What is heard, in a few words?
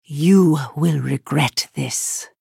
threaten